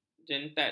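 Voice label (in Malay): "jentat", Malay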